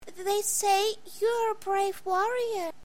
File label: childlike Warrior